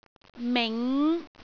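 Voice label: "ming5", Cantonese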